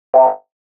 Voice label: grunt